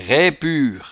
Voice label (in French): "raipure", patois jura